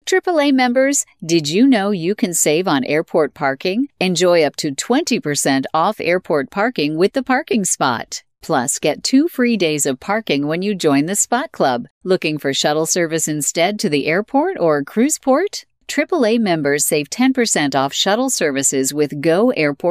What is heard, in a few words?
Female